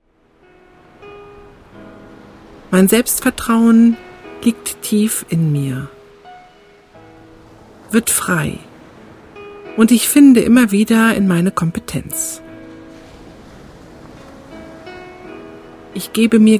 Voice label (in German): power meditation langversion weibl stimme